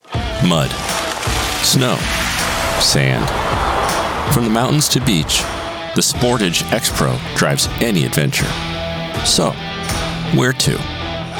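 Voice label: Professional Male Voice Over Talent, COMMERCIAL; MIX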